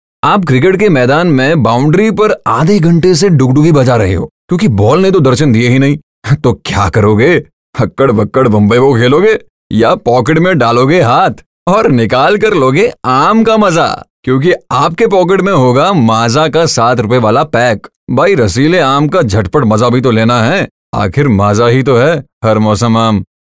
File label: Hindi Character, male voice; maaza